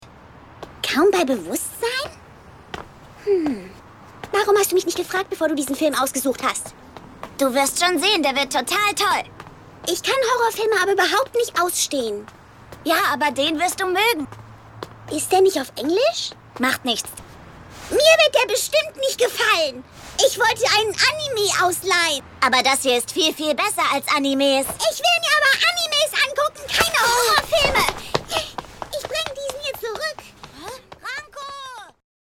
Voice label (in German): synchron child; sankarea; ranko